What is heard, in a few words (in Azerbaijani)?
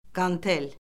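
"qantel", Azerbaijani; Orfoqrafiya Lüğəti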